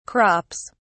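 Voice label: "crops", British English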